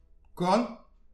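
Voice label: "korn", Breton; petit precis de prononciation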